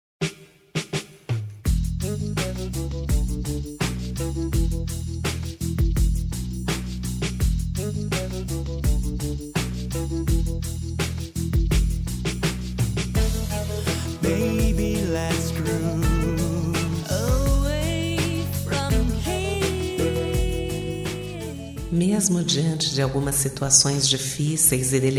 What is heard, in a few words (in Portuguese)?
ANIV IRMAO, telemensagem; aniversario irmao